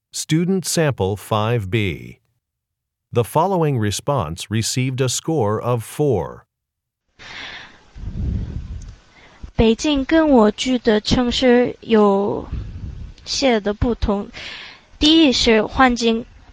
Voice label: chinese conversation; Conversation 5 Sample B (Score of 4)